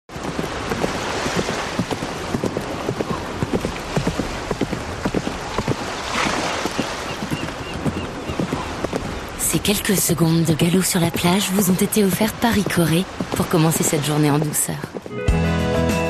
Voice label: spot, radiopub